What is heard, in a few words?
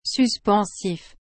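"suspensif", French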